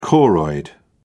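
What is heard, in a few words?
"choroid", British English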